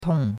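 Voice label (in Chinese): "tong4" Chinese; Cours de Chinois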